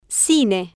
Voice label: "cine", Spanish